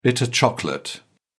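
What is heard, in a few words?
"bitter chocolate", British English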